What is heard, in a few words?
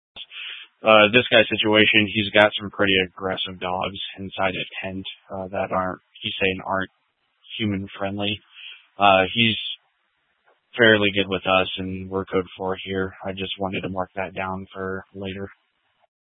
Incident Tac 3, scanner